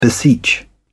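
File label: "beseech", British English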